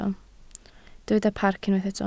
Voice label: "parc", Welsh